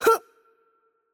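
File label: Mexican Spanish attack light voice; bloom minigame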